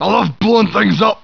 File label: annoyed; war2; humans; dwarven demolition squad